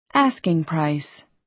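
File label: "asking price", American English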